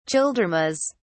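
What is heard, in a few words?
"childermas", British English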